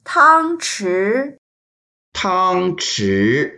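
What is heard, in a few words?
"tang1chi2", Mandarin Chinese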